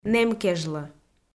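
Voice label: "нэм кежлӧ", Komi, female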